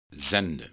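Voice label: "zenden", Dutch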